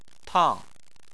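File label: "tang", Mandarin Chinese, fourth tone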